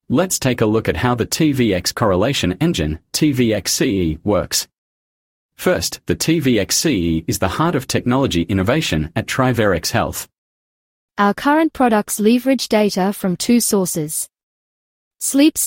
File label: voiceover